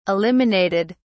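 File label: "eliminated", British English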